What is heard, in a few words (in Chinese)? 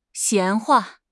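"闲话", Chinese; HSK6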